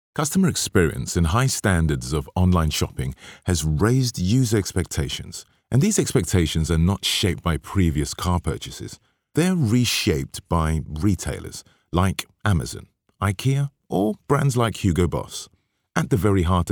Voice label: english british automotive